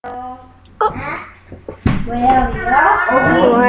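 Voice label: "lick", spoken word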